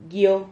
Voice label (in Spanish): "guio", Silabas